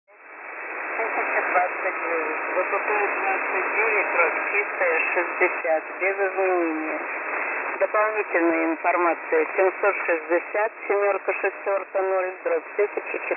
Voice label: 6693.0khz volmet; europe; samara